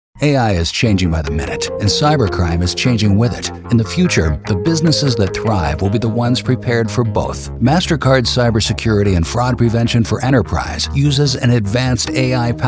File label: Radiospot Profi Publikum; NATIVE PROFISPRECHER